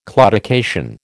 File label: "claudication", American